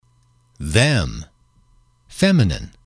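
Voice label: a Ellas, male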